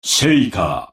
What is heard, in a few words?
desperation power; paradox bondrewd voice pack; chrono